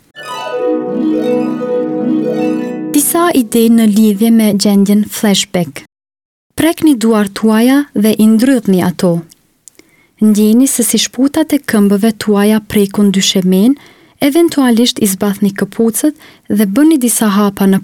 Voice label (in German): Albanisch